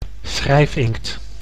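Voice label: "schrijfinkt", Dutch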